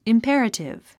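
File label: "imperative", English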